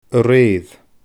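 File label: "a reeir", Scottish Gaelic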